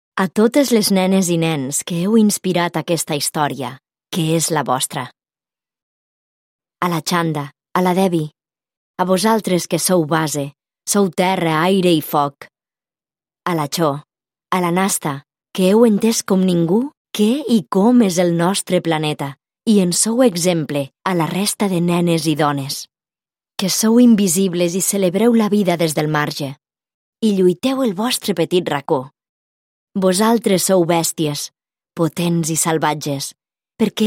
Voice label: catalan narration

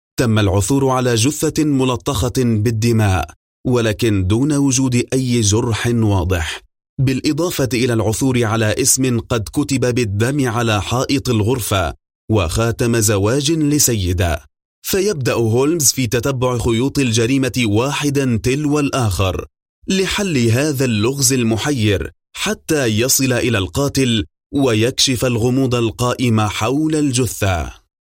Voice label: arabic promos

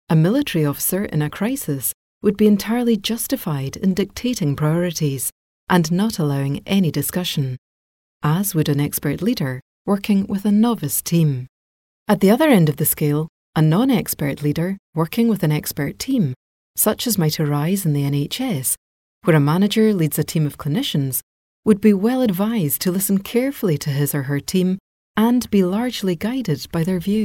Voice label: english scottish e-learning; scottish voice actors

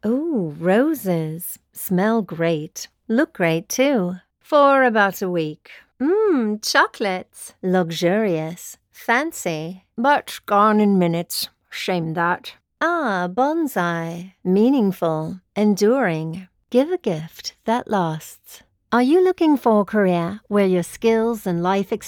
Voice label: English British commercial

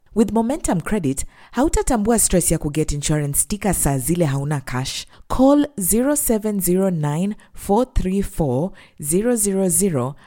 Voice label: swahili others

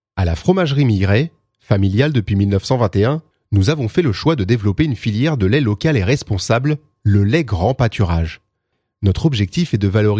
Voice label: french commercial

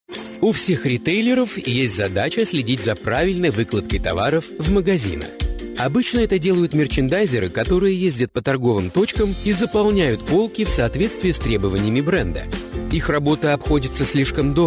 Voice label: russian

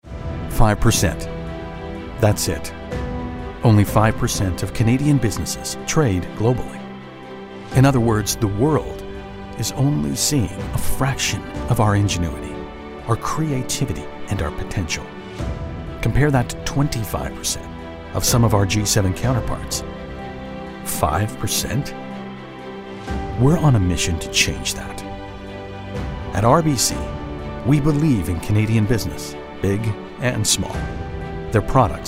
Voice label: english american corporate videos

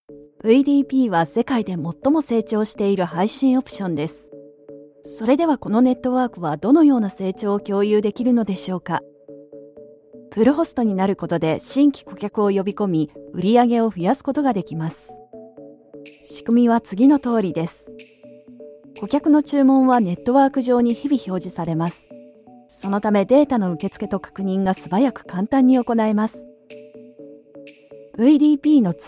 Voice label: japanese corporate videos

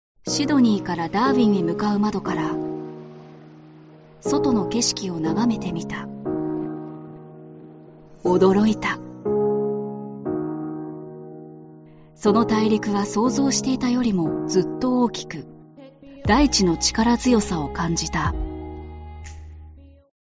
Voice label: arabic medical narration

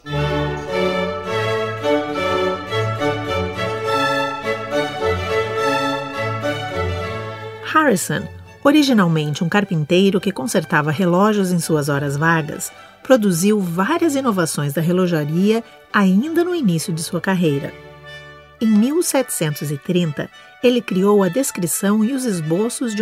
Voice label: portuguese brazilian narration

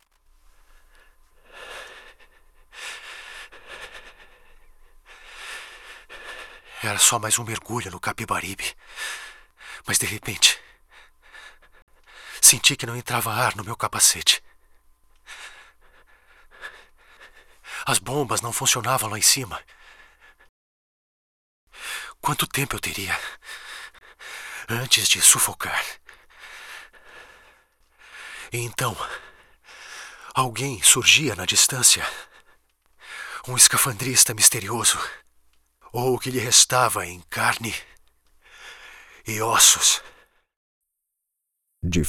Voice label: Portuguese Brazilian audiobooks; brazilian portuguese voice actors

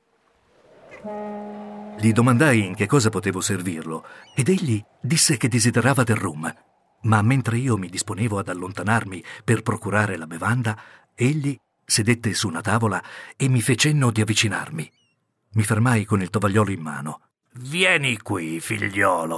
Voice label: italian audiobooks; italian voice over talent

